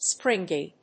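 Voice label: "springy", English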